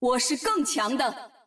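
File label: Chinese; 守望先锋2; 回声; 终极技能; 愚人节语音; 主动或敌方释放; 简体中文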